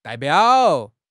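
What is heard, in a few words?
"dai4 biao3", Chinese; 汉语口语新时代-初级下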